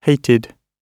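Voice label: "hated", British English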